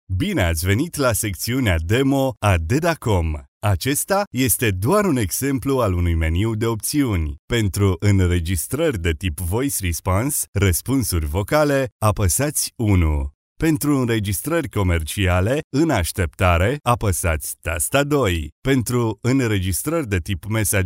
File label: Romanian